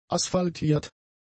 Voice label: "asphaltiert", German; adjectives

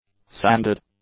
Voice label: "sanded", English; adjectives

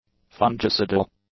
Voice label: "fungicidal", English; adjectives

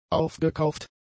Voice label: "aufgekauft", German; adjectives

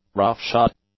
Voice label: "roughshod", English; adjectives

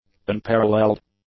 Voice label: "unparalleled", english; adjectives

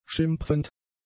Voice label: "schimpfend", German; adjectives

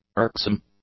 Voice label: "irksome", english; adjectives

